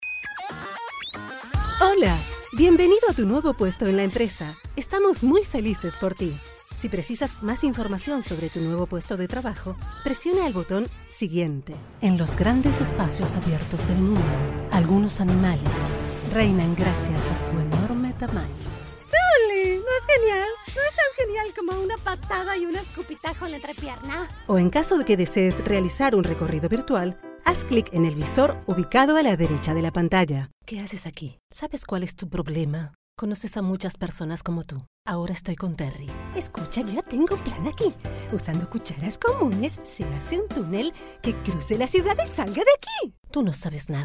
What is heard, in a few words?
Spanish LATAM